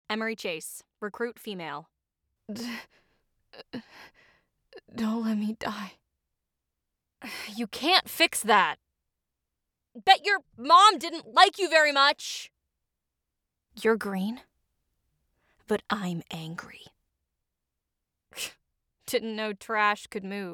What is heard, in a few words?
Recruit Female